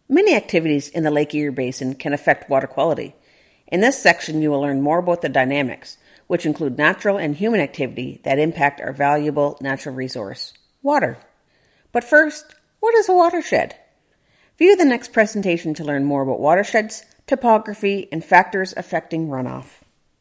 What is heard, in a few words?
narration intro